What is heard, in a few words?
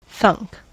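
"thunk", US English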